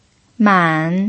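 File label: "man", pinyin, third tone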